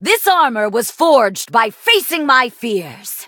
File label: levelup en; Cookie Run